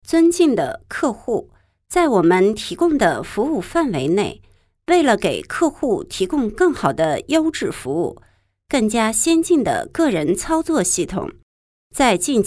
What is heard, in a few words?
Mandarin; קריינות